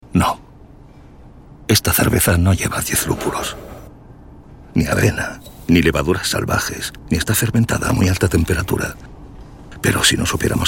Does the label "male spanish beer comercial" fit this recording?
Yes